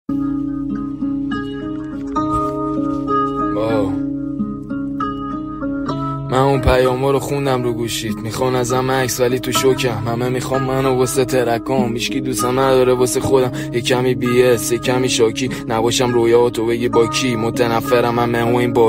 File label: Istanbul Voice